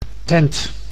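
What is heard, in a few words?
"tent", Dutch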